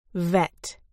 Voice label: "vet", uk

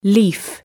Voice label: "leaf", uk

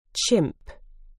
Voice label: "chimp", uk

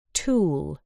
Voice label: "tool", uk

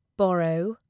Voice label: "borrow", uk

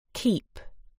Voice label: "keep", uk

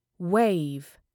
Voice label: "wave", uk

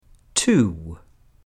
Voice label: "two", uk

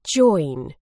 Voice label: "join", uk

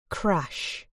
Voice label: "crash", uk

